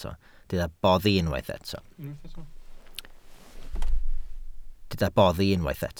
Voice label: "boddi", Welsh